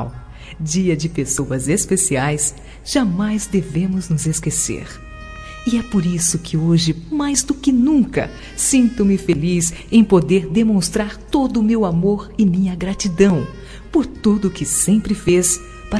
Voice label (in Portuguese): Aniv. de Avô F